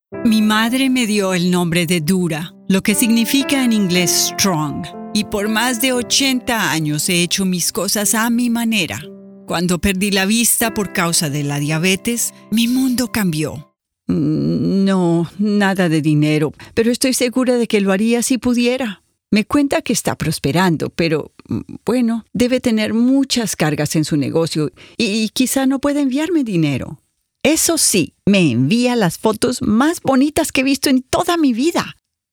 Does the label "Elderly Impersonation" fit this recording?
Yes